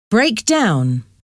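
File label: "break down", English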